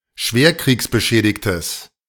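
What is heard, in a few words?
"schwerkriegsbeschädigtes", German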